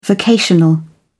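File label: "vocational", British English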